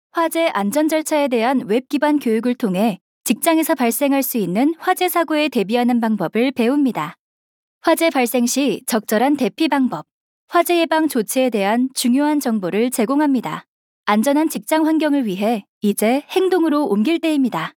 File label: KOREAN FEMALE